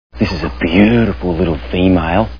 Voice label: female; Crocodile Hunter